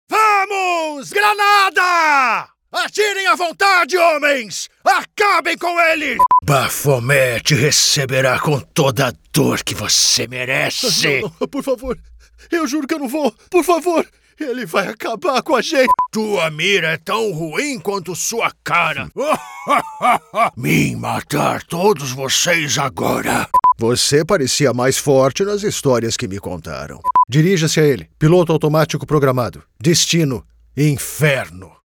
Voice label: Character Voices, Brazilian; Animation; Portuguese; Male